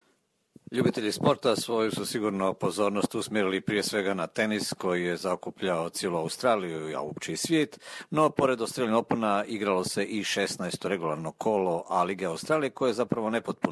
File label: croatian